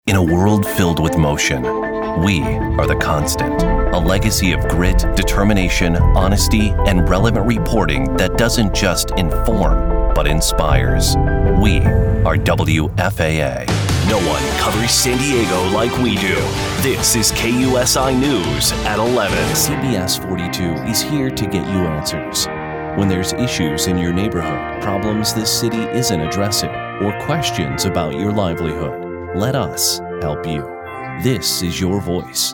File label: tv affiliate men